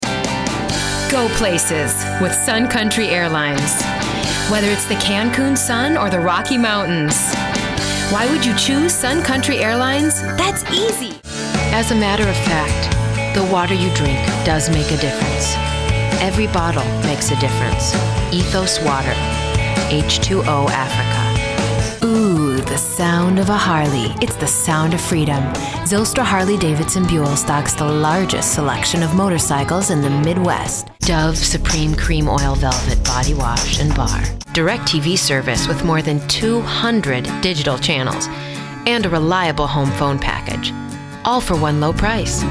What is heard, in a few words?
Commercial Voiceover